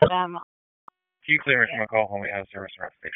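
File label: EMS dispatch; wake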